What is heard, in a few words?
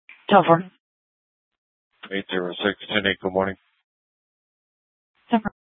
Dispatch; Scottsdale